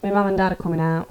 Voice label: Yorkshire, accents of english